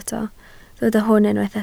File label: "hwn", Welsh